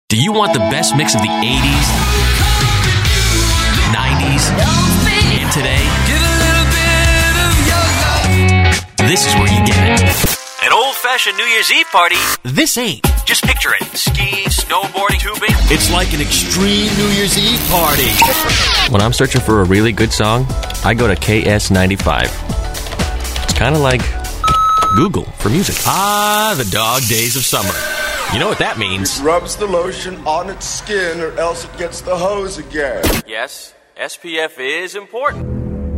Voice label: imaging, male voice talent

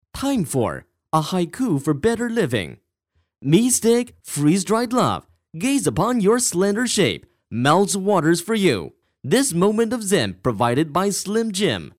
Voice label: Asian Accent, foreign accent voice overs; foreign languages

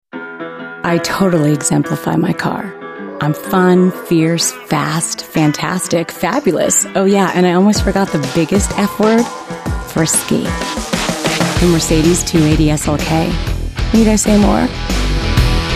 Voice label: female voice talent comm

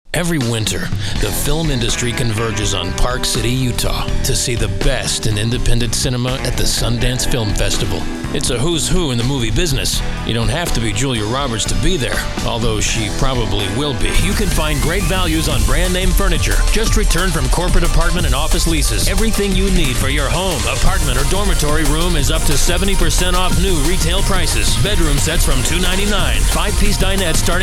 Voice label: comm, male voice talent

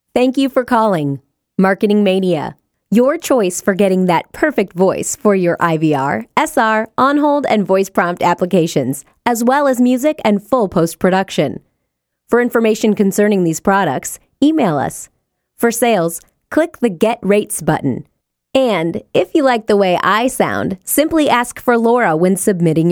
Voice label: Voice Sample, onhold

